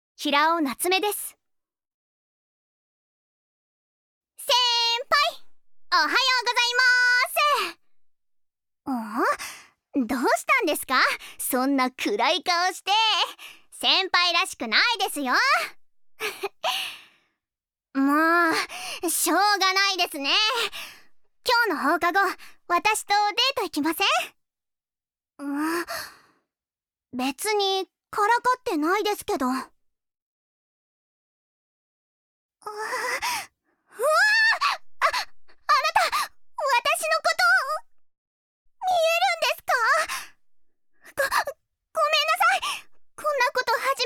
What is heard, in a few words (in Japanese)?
voiceactor woman